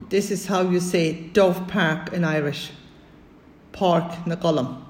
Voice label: Dovepark, M; townlands